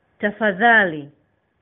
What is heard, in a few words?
please swahili